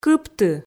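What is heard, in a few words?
"кыпты", Komi, female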